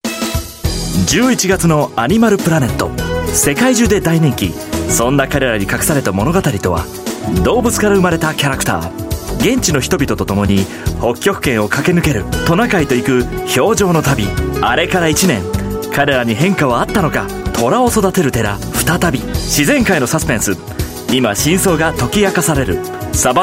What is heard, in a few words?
Japanese; Highlights